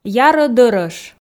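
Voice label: "ярӧ дӧрӧш", Komi, female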